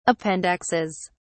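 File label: "appendixes", British English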